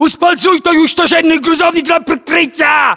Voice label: order cover truck destroyed, ru; localized english

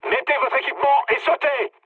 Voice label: bailout mood med, speech fr; War Thunder

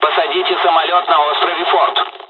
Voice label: land, dialogs russian; War Thunder

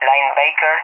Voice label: "Linebacker", ENG; AirDefence; DCS; Allied Flight

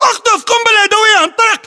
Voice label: order action grenade, battle chatter, english

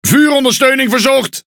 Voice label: NL Speech Quick Commands Need Support; World Of Warships

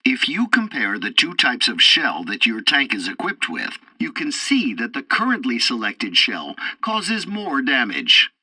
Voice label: tutorial tank arcade fourth target ammo, english; War Thunder

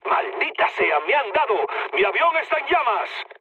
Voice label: on fire, mood med, speech; War Thunder

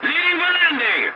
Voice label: voice message landing, english; War Thunder

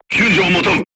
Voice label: voice message leave vehicle, crew tanks japanese

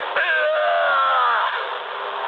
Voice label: ru damaged pilot; War Thunder

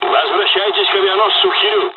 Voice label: evac, dialogs russian; midway; War Thunder

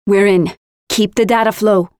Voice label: Objective hacked (attacker), Voiceovers; Project Argo

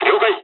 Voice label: dialogs japanese; War Thunder

